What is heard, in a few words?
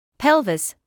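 "pelvis", British English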